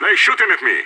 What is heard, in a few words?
radio taking fire; idf